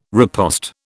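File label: "riposte", British English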